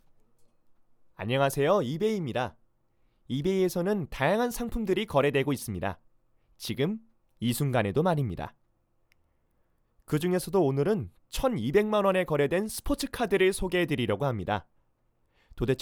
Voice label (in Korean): 샘플 밝게